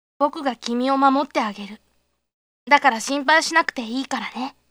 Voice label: boy; 幼い男の子